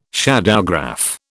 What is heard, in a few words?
"shadowgraph", British